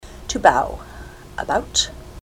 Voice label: to bow about, ontario

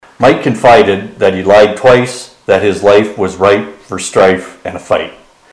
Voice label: mike lied twice, ontario

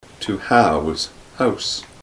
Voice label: to house house, ontario

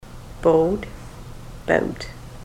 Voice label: bowed bout, ontario

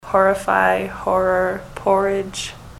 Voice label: horrify horror

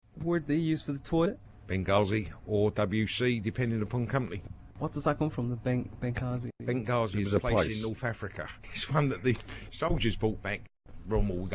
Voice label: cockney

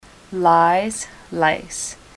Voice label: lies lice, ontario

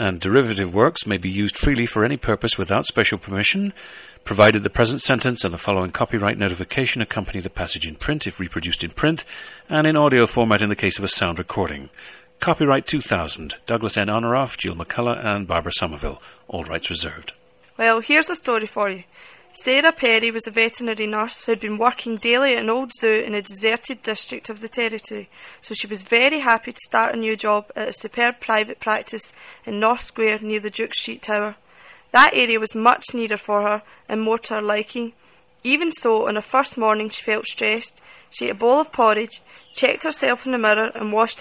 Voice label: scotland, dialects; speech

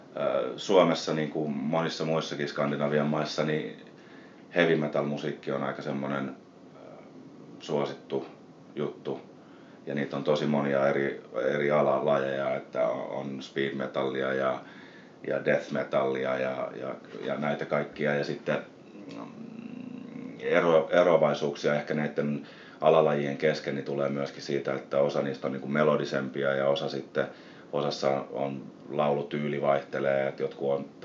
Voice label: Finnish Music Response in Finnish; scandinavia

